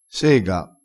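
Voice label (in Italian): "sega", vocabolario dialettale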